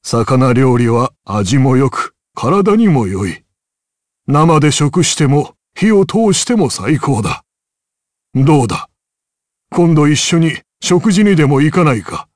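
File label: vox dialogue, voices, Japanese; Dakaris; kingsraid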